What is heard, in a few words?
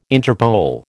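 "Interpol", American English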